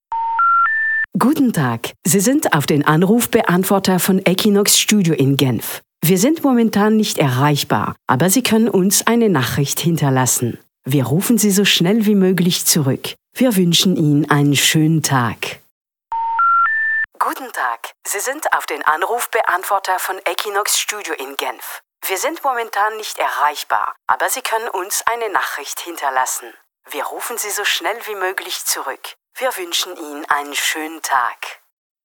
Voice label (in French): repondeur allemand complet